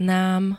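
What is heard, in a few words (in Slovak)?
"nam", Slovak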